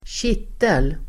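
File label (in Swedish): "kittel", Swedish; Uttal: [²tj'it:el]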